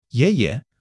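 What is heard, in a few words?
"yéye", Chinese; 基础汉语40课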